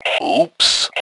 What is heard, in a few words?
"Ooops", Male; T2 Male 01